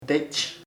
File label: father, chamacoco; padre